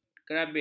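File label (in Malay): "kerabik", Malay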